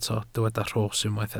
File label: "rhos", welsh